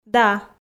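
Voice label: "да", Komi, female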